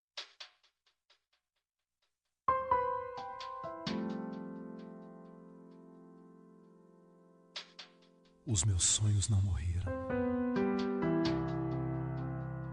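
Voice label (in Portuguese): mãe gospel female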